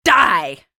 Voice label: taunt, citizen voice

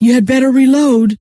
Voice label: reload, scientist female

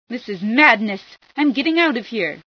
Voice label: madness, female

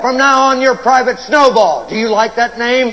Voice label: chat; sgthartmann

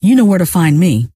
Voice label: you know where, scientist female

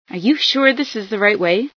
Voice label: right way out, female sci

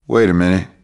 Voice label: sniper, grunt; nimble grunt

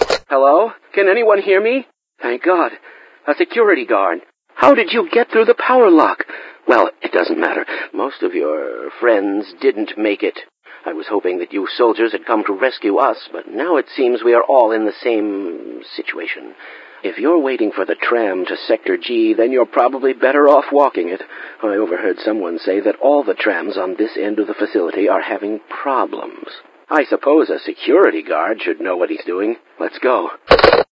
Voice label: phone, scientist; sven

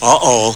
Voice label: uh oh

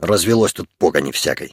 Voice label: soldier idle, russian

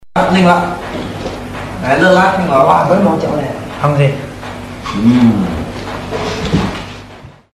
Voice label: chat

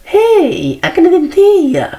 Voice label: soldier voice

